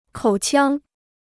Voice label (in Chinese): "口腔", Chinese